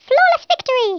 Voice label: "flawless", English

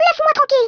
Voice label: leavemealone, French

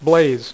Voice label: "BLAZE", Dealer's Speech

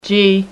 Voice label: "g" female, alphabet; people; voice

